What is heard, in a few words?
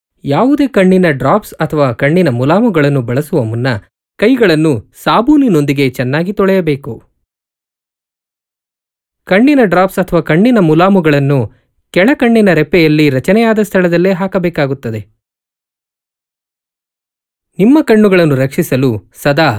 Kannada M